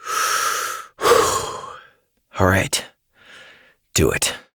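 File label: Voice, English; New War; Orbiter; Operator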